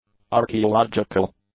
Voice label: "archaeological", English; adjectives